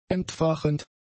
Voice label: "entfachend", German; adjectives